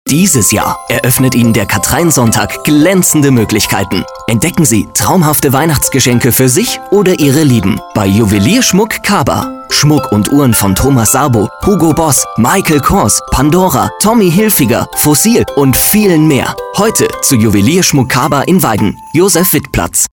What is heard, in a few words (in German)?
Voicedemo; Juwelier Kaber